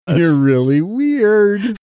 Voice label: saying youre really weird sing songy